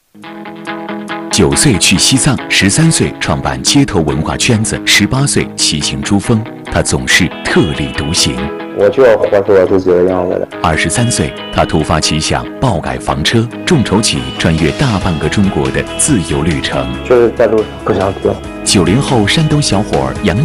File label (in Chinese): 男, 记录片; 玩转公路餐厅